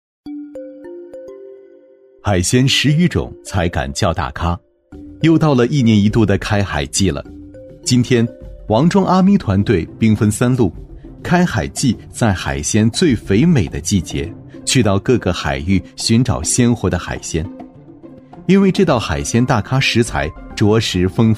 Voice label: 男-纪录片-舌尖, 年轻厚重 舌尖感; 海鲜

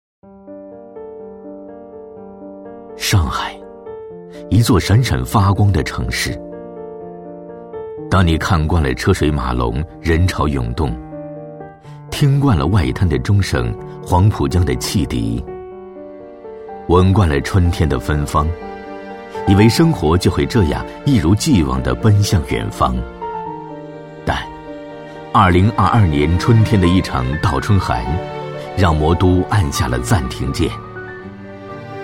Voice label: 男 城市宣传; 男186-历史沧桑 质感磁性; 浦东路桥

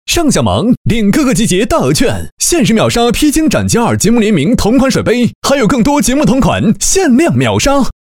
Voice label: 男 综艺广告; 小芒app; 披荆斩棘的哥哥2; 科技时尚

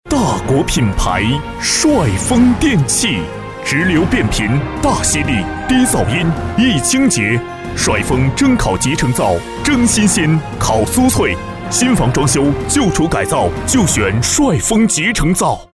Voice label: 男 广告配音 大气浑厚; 质感磁性 大气浑厚; 帅丰集成灶

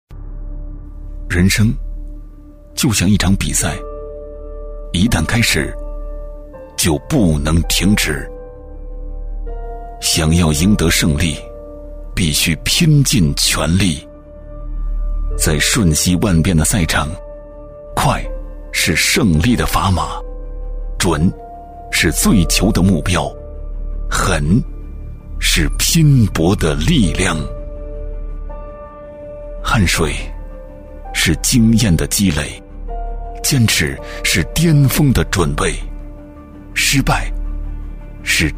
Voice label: 男 走心旁白; 青春二建、与梦同行; 男94-高端厚重

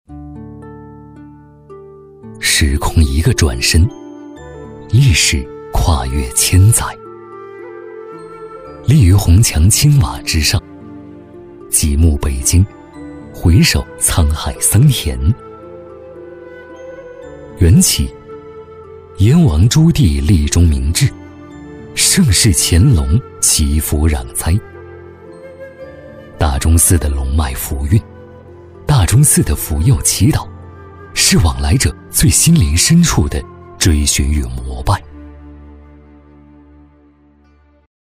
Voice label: 男C9-纪录片 低沉讲述, 年轻质感 质感磁性; 大钟寺收藏

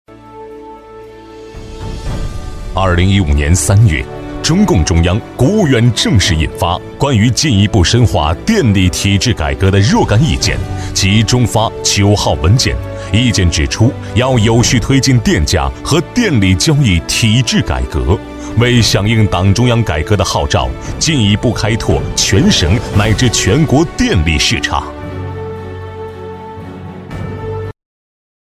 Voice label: 男 党建专题片 大气沉稳; 抑扬顿挫 大气浑厚

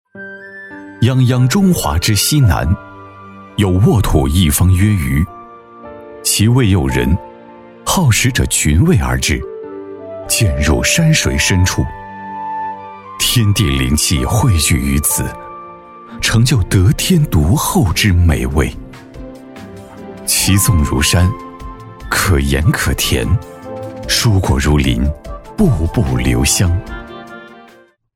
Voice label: 男-纪录片-舒缓讲述, 厚重有力 舒缓抒情; 男107-纪录片-舒缓讲述

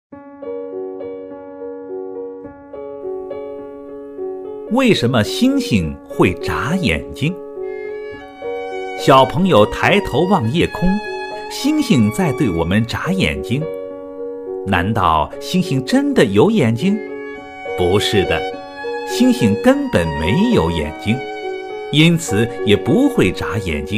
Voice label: 男 儿童读物 讲故事; 质感磁性

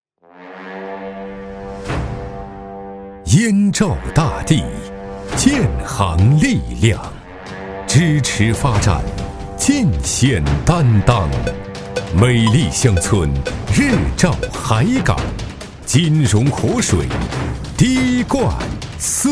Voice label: 男 银行广告 气势有力; 中英双语 大气浑厚; 燕赵大地

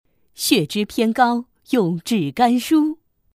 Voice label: 女 人物角色 中老年妇女; 素人自然

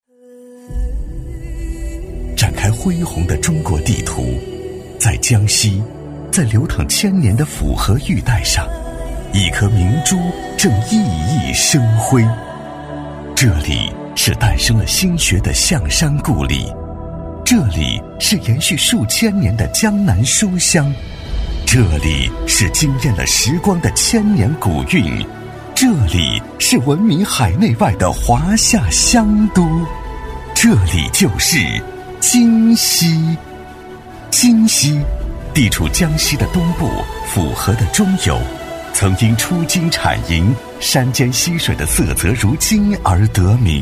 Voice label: 男 招商宣传片 沉稳高端; 金溪; 磁性质感 大气浑厚

